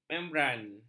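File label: "membran", Malay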